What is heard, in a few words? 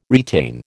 "retain", American